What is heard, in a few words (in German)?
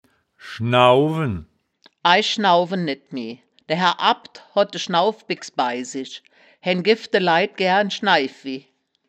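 "schnau-wen", wittlicher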